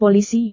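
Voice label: "polisi", Indonesian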